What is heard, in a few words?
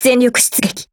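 skill, Japanese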